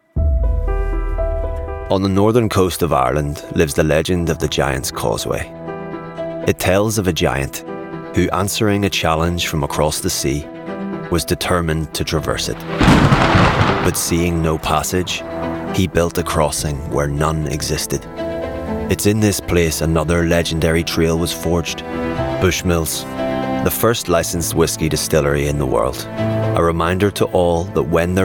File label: Commercials